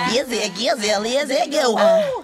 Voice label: izzy giz or kizzy go, Voice gibbrish